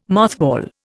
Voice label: "mothball", Indian

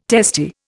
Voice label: "testy", Indian

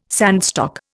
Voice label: "sandstock", Indian

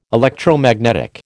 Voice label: "Electromagnetic", American

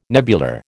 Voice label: "nebular", American

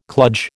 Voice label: "kludge", American

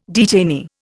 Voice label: "detainee", Indian

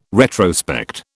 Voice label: "retrospect", British

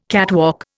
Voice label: "catwalk", Indian

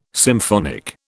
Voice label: "symphonic", British

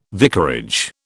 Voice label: "vicarage", British

